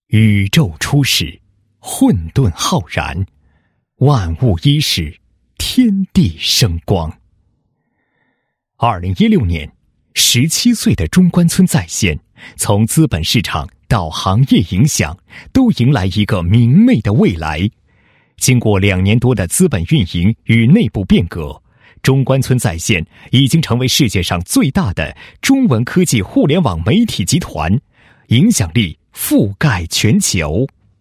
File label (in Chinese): 男 工作总结 半年度总结会